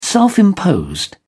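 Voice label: "self-imposed", British English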